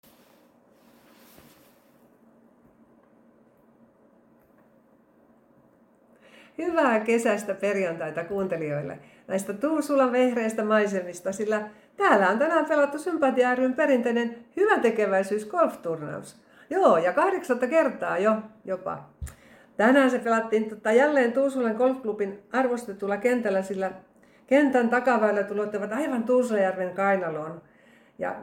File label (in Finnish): Avauspuhe; golf